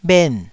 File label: "bhen4", taiwanese